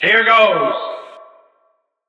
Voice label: level announcer